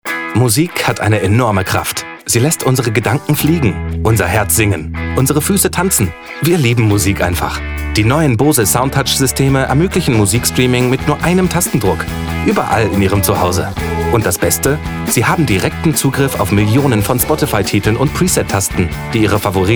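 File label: German Commercial Upbeat; Bose Spotify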